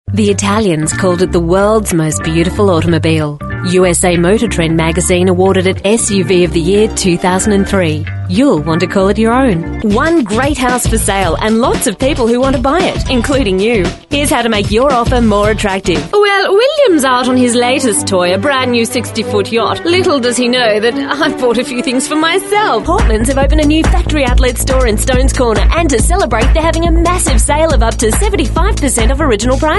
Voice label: female talent retail